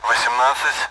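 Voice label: Speech Sound Russian Common AWACS Numbers; DCS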